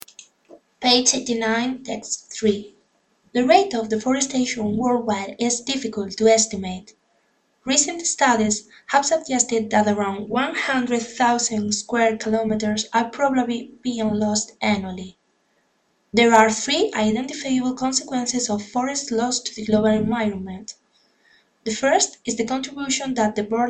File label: english reading aloud